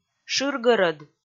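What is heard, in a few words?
"шыргӧрӧд", Komi, female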